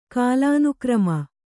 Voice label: "ಕಾಲಾನುಕ್ರಮ", Kannada

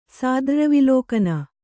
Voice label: "ಸಾದ್ರ್ರವಿಲೋಕನ", Kannada

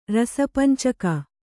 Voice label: "ರಸಪಂಚಕ", Kannada

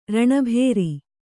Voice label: "ರಣಭೇರಿ", Kannada

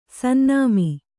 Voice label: "ಸನ್ನಾಮಿ", Kannada; sannāmi

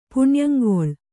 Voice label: "ಪುಣ್ಯಂಗೊಳ್", Kannada

